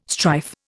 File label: "strife", Indian